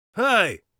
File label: hello, Warrior Voice; Warrior Voice Pack